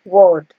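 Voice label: "wad", Nigerian Pidgin, female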